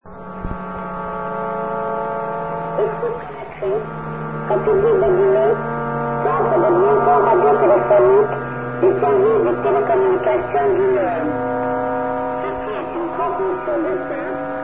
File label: usb